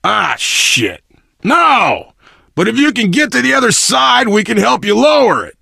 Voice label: initial meeting, survivor voice biker